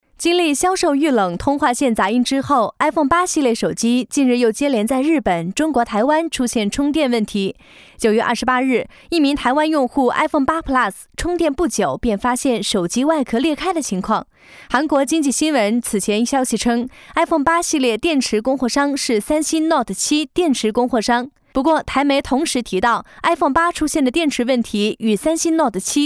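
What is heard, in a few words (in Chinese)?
女 新媒体解说（自然）; 【新媒体解说】iPhone 8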